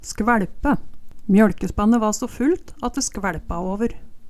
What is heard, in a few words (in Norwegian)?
"skvae Lpe", Numedalsmål